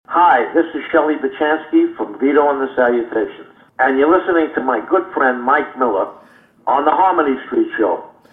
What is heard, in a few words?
promo